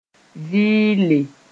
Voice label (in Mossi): "vɩɩle", moore